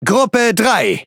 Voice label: Speech Pilots Fighter ID, DE; World Of Warships

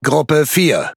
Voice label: Speech Pilots Bomber ID, DE; World Of Warships

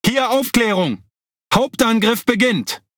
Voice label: ATTACK BIG, DE; PVE

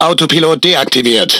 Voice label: Speech Autopilot Disabled, DE; World Of Warships

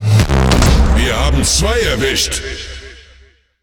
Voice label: Speech Double Kill, DE; World Of Warships

